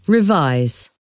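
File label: "revise", English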